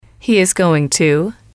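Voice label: "he is going to", English, female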